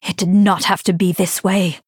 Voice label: Female Night Elf Knockout